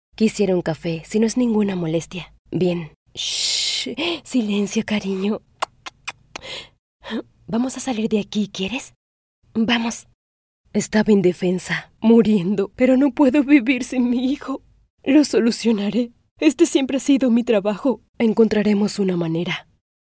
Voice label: locutora espanol neutro spanish american voiceover; Equipo de doblaje de español neutro. Locutores latinoamericanos y locutoras latinoamericanas